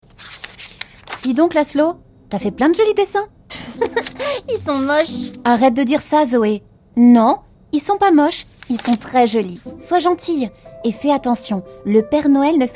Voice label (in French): LIVRE AUDIO FR; voix-off; maman